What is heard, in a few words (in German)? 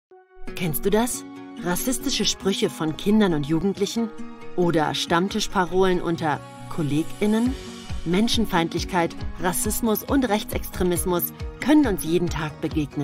Erklärfilm; Vielfalt Mediathek